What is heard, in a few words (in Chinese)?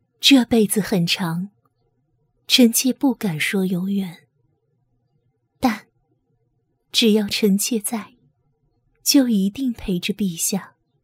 女 游戏; 赵威后